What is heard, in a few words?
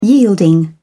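"yielding", British English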